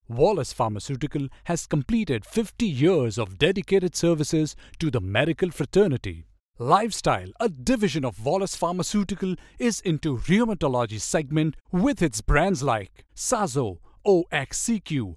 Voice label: Corporate AV; Wallace Pharma